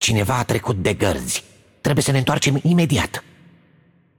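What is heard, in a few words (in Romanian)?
Game Outro, Romanian; VO; Kennen; bloom minigame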